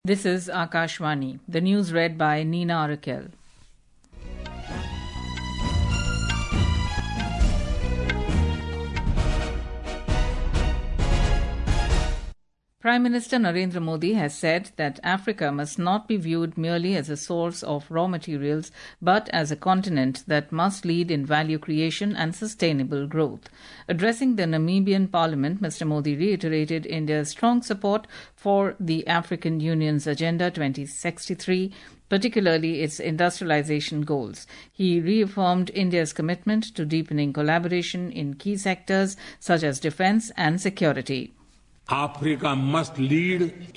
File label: English